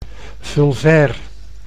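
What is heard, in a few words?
"vulvair", Dutch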